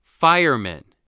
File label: "fireman", spelling